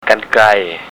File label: "kan klai", Thai